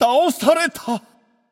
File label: notify slain you, japanese; caster